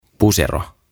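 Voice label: "Pusero" Finnish, "Jacket"; OTAVA KONSERNI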